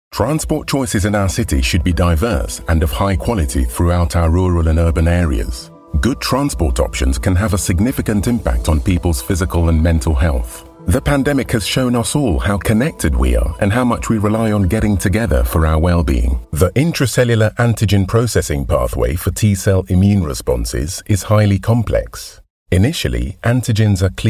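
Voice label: English UK, Corporate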